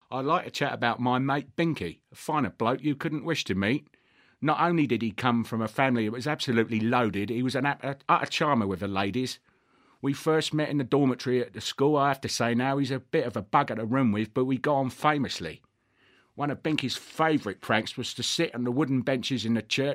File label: British East End Cockney Male 50s